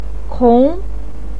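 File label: "kong", Mandarin Chinese, second tone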